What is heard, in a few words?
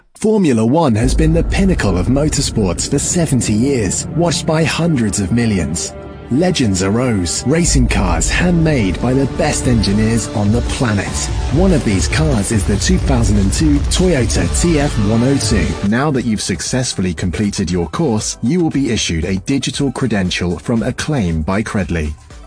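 energetic advertisement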